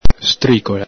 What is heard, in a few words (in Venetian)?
"stricola", Veneto; strìcoƚa; N.fem. sing.; ENG: slap, spank; ITA: sberla; el Galepin